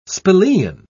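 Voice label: "spelaean", English, British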